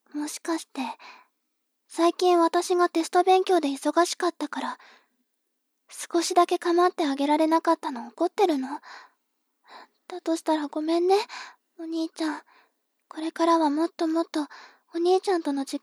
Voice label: 妹 台詞

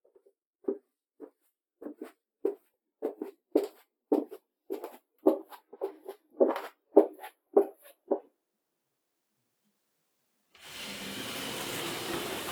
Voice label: コース説明（抹茶をたてる音含む）

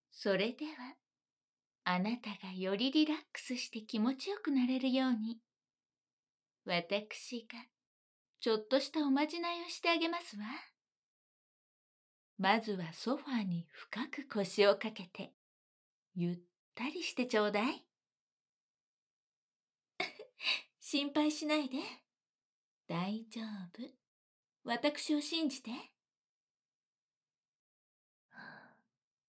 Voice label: 催眠導入

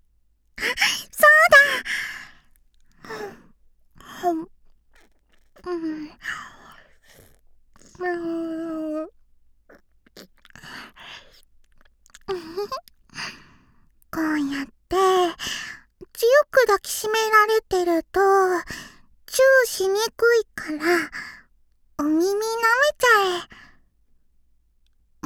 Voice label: お耳ペロペロしてお耳にコソコソお話するの, ASMR

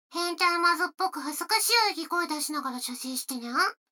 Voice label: 女の子 台詞

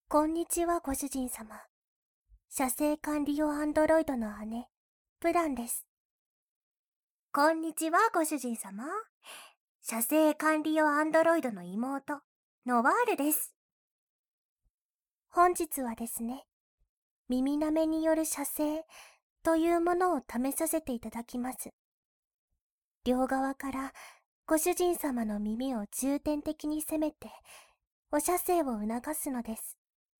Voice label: 赤ちゃん言葉耳舐め; バイノーラル; 新型アンドロイドたちのドSクールな搾精生活; ダチュラスクリプト; 白黒の赤ちゃん言葉耳舐め♪

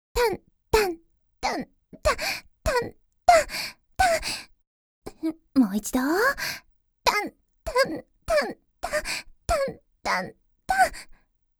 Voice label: タッピングA<解説なし>; 実技パーツ（エクササイズ）; 第１回講座より（ラブ&タップ）

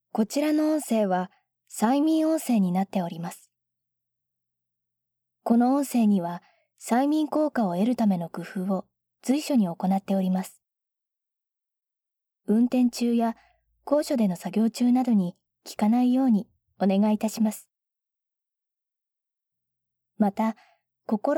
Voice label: 諸注意 ナレーター

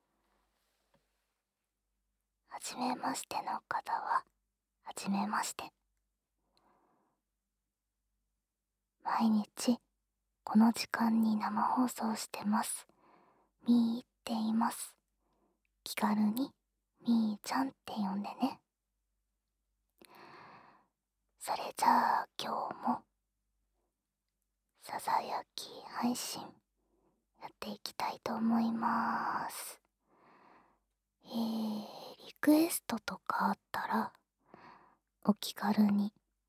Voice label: 配信開始フリートークとオノマトペ, ASMR

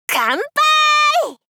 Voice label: Ｓっけオラオラ系女子×中音域; かんぱ～い！

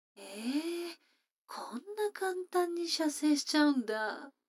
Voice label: 女の子台詞

